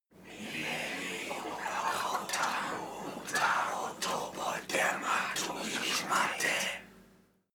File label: evoke whisper